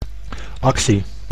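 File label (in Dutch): "actie", Dutch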